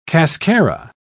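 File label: "cascara", British English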